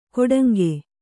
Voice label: "ಕೊಡಂಗೆ", kannada

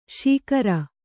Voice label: "ಶಿಖರಿ", Kannada

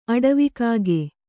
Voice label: "ಅಡವಿಕಾಗೆ", Kannada

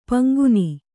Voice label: "ಪಂಗುನಿ", Kannada

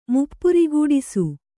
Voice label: "ಮುಪ್ಪುರಿಗೂಡಿಸು", Kannada

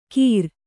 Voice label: "ಕೀರ್", Kannada

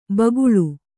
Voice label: "ಭೂಗೋಳ", Kannada

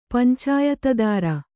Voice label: "ಪಂಚಾಯತದಾರ", Kannada

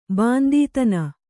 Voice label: "ಬಾಂದೀತನ", Kannada

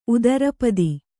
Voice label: "ಉತ್ತರಪದ", Kannada

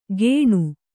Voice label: "ಗೇಣು", Kannada

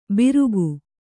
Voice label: "ಬಿರುಕು", kannada

